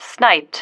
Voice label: "sniped", female

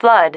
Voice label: "flood", female

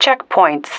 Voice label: "checkpoints", female

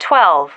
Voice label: "twelve", female

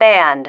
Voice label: "banned", female

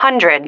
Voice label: "hundred", female

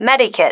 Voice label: "medikit", female

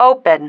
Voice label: "open", female

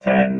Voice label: "ten", robot

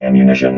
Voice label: "ammunition", robot vox

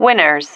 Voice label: "winners", female

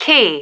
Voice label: "key", female

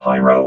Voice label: "pyro", robot

